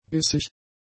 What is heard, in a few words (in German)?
"bissig", German; adjectives